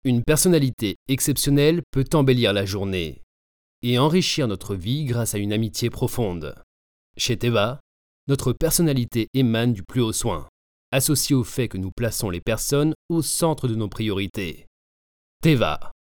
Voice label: commercial, French, male